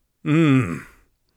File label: irritated, Mage Voice Pack